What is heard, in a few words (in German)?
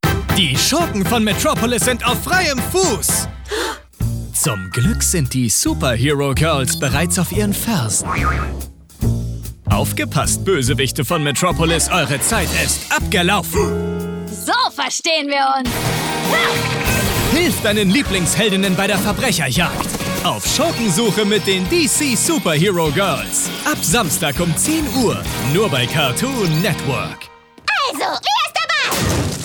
Trailer, maenner; Sprecher; Warner; CN MostWantedOfMetropolis